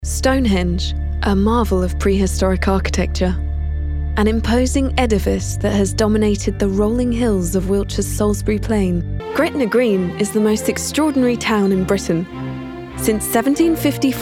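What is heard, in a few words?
English UK female Narration Corporate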